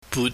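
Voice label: "but", Cantonese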